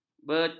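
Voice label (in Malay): "beting", Malay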